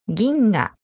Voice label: "ginga", Japanese; 銀河; galaxy